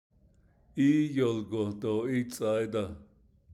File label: navajo; There was a lull after the wind storm; Perfective (P)